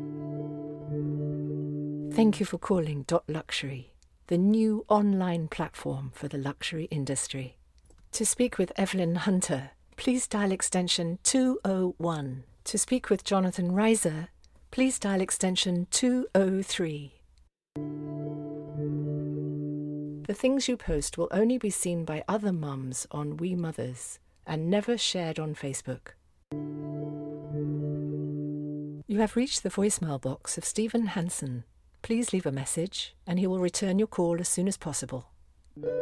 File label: Business Corporate